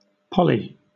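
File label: "poly", British English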